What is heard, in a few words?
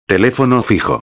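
"telefono fijo", Spanish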